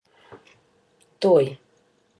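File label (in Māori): "toi native", Māori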